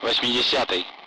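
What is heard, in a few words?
Speech, Russian, 8th; Allied Flight; DCS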